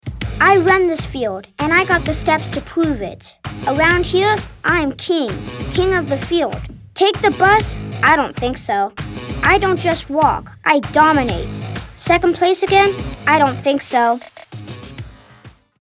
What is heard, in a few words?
Sports Kid